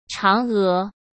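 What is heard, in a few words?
"嫦娥", Mandarin Chinese; chinese-flashcards; hsk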